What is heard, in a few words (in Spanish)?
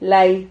"lay", Silabas